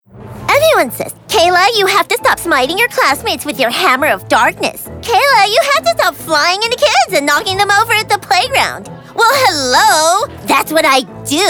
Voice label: vo animation